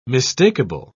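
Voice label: "mistakable", British English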